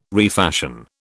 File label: "refashion", British